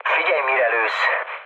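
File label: player friendly fire, mood med, Hungarian; War Thunder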